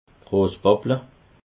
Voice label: "rosspapple", alsace

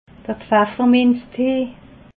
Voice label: "pfefferminztee", alsace

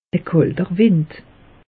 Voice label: "kalter wind", alsace; meteo

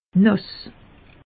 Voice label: "nass", alsace; meteo

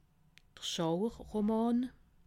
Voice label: "schauerroman", alsace

